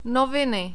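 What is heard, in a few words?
"noviny", czech, male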